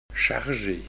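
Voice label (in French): "charger", French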